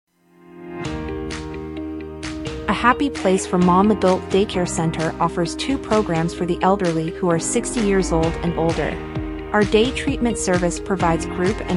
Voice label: English female